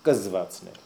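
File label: "Ksvatsnel", pronunciations; Fourth Way; Beelzebub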